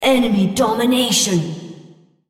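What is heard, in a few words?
female Five Cap Enemy; Odin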